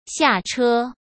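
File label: "下车", Mandarin Chinese; hsk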